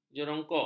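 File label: "jerongkok", Malay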